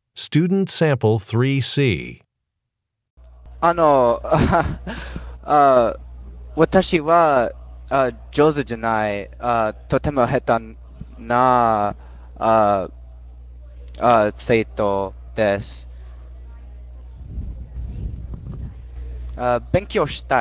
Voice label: japanese conversation

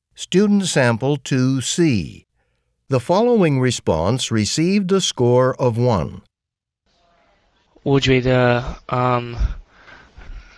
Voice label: chinese conversation

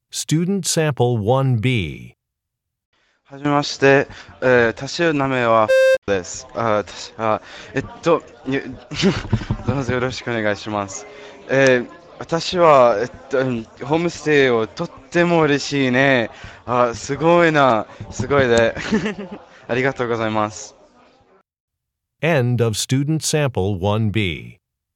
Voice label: japanese conversation

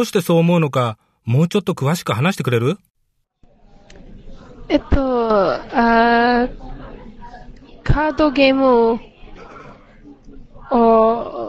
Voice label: japanese conversation

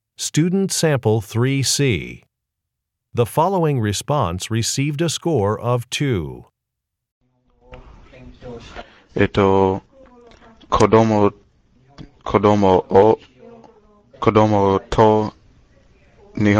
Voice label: japanese conversation

